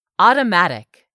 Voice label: "automatic", english; 3000 words